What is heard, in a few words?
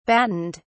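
"battened", British English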